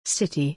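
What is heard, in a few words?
"city", English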